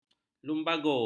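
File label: "lumbago", Malay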